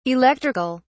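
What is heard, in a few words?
"electric(al)", British English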